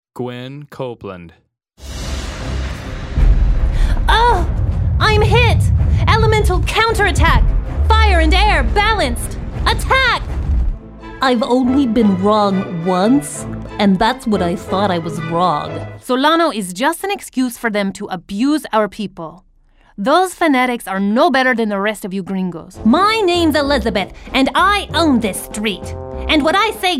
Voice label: VO Animation